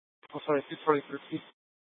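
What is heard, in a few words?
Police Department Dispatch, scanner; Mesa PD; 1 Fiesta Dispatch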